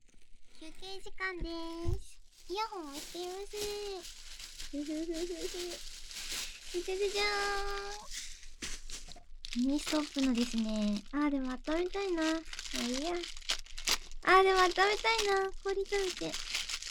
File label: FreeTalk, ASMR